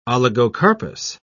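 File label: "oligocarpous", British English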